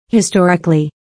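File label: "historically", English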